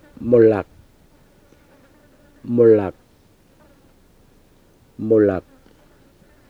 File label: "murlak", Murrinh-Patha; murlɑk